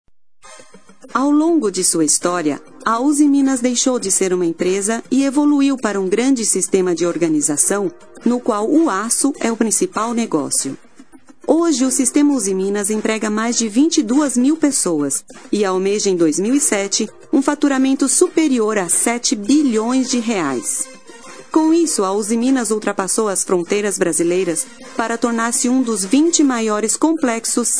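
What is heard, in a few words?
portuguese female voice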